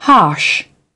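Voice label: "harsh", British English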